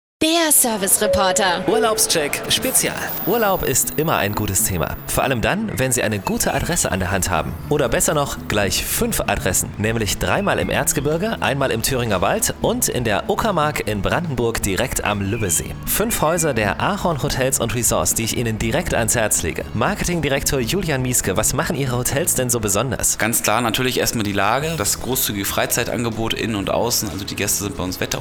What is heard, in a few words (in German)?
Radio Servicereporter; Radio Brocken; AHORN Hotels und Resorts